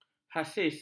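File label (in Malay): "hasis", Malay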